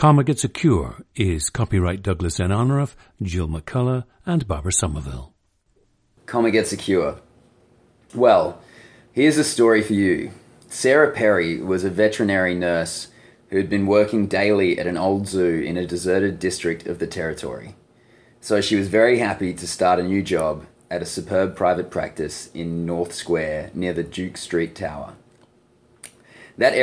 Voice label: australia

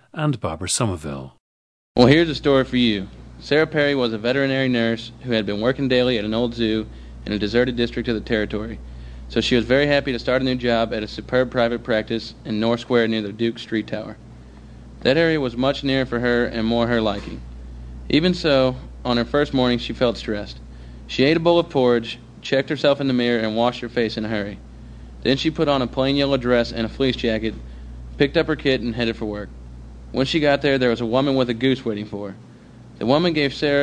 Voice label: missouri, dialects

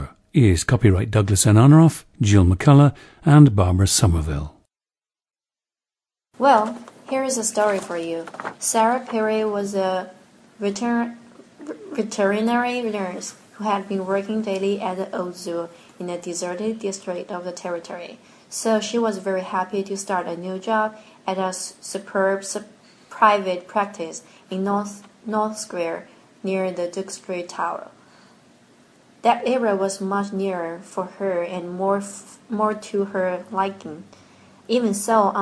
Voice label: sichuan

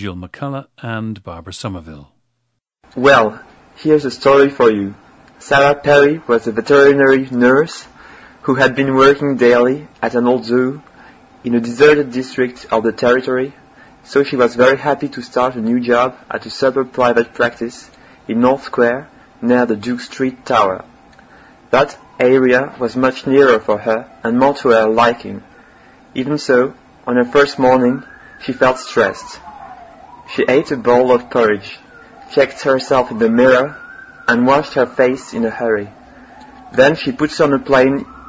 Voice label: france, dialects

